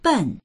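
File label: "ben", Mandarin; 笨; 愚かである; dictionary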